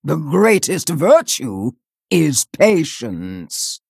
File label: Female Tortollan Play